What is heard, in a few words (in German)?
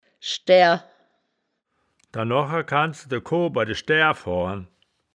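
"schdaer", wittlicher woerterbuch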